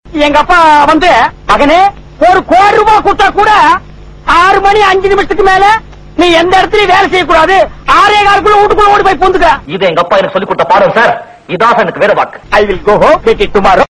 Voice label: super comedy